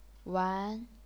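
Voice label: "wan", chinese pinyin, second tone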